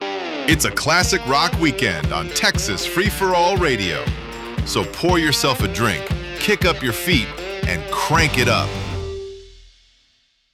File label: sweeper